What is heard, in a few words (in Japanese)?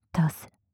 強く・極近・左, ASMR; マゾオスのあなたに敗北射精の快楽を叩き込む音声